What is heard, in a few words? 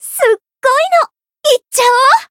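limit break, Japanese; bivette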